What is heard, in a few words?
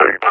callout code eight, combine officer vo; Half Life Alyx; Half Life Model Pack